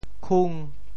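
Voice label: "khung5", Teochew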